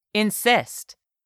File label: "insist", English; 3000 words